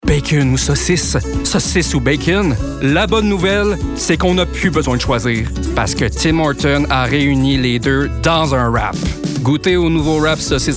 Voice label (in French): Annonceur; Tim Hortons